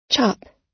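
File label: "chop", American English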